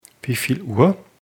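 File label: "wie viel uhr", German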